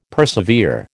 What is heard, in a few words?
"persevere", American